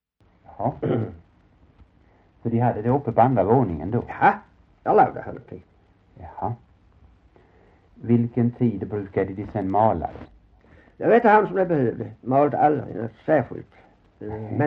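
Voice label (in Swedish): Hörja Skåne, svenska sagor dialekter; Folkminnen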